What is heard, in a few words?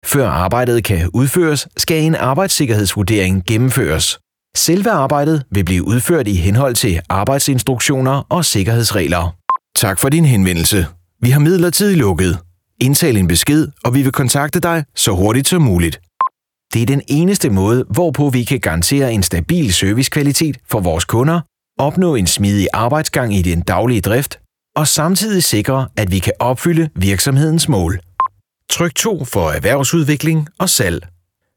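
Danish corporate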